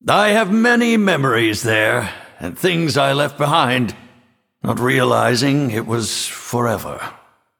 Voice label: Male Nightborne